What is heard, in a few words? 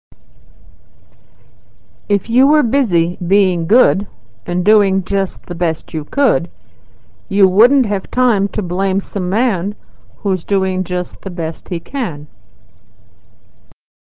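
wish, American English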